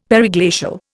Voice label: "periglacial", Indian; Dictionary